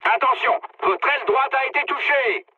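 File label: right wing, mood medium, French; War Thunder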